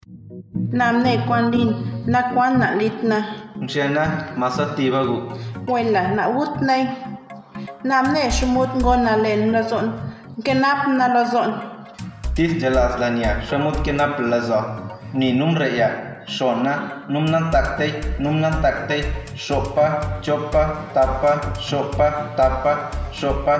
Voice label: Zapoteco Costa

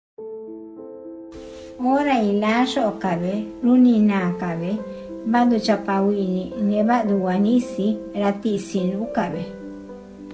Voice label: ZAPOTECO ISTMO

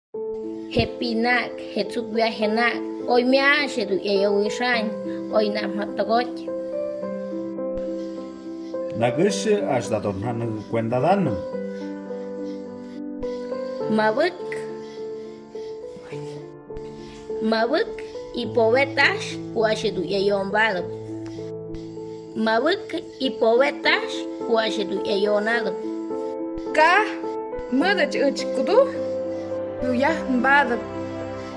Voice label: MIXE BAJO

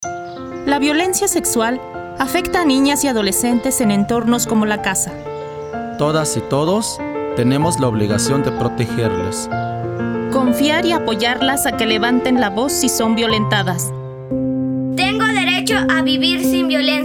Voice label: SPOT ESPANOL

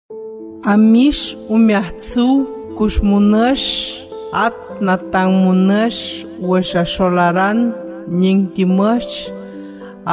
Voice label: HUAVE

